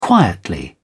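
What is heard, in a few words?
"quietly", British English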